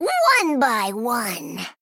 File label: Female Gnome Attack; hearthhead